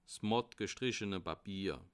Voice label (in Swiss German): "matt", alsace